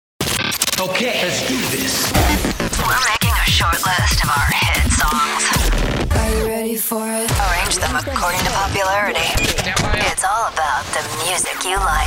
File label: Imaging